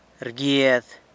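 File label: "rguiad", pierces, San Lucas Quiaviní Zapotec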